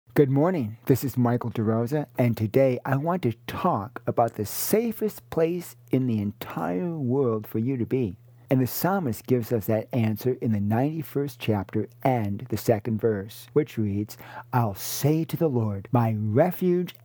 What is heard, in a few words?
Radio Sermonette; Psalm 91 2; Refuge and Shelter